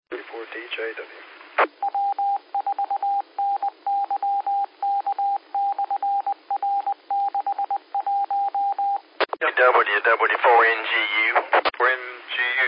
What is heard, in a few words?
qso rec simplex logic